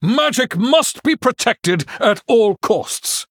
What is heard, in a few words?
Male Human Greetings; Bacon Shop